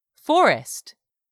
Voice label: "forest", English; 3000 words

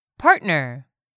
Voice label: "partner", English; 3000 words

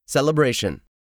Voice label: "CELEBRATION", English; 3000 words

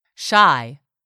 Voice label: "SHY", english; 3000 words

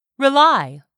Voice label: "RELY", english; 3000 words

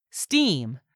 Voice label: "steam", English; 3000 words

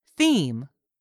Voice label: "theme", english; 3000 words

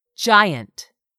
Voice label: "giant", English; 3000 words

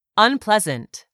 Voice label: "unpleasant", English word; 3000 words

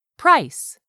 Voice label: "price", English; 3000 words

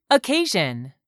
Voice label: "OCCASION", English; 3000 words

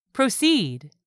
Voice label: "proceed", English; 3000 words

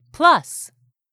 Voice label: "plus", English; 3000 words

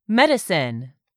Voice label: "medicine", English; 3000 words

